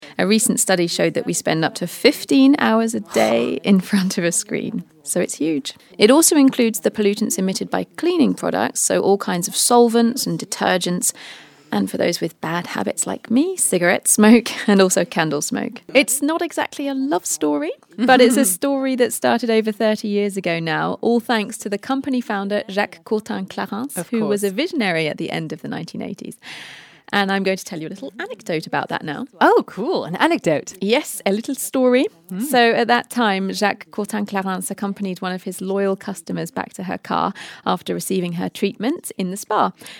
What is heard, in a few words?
podcast conversational natural; clarins